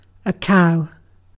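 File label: "cow", British English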